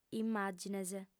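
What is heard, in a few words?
"immàgines", Sardinian, female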